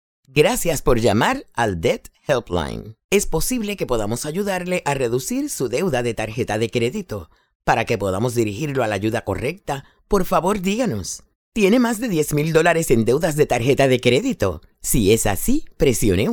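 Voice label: Spanish IVR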